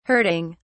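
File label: "hurting", English, British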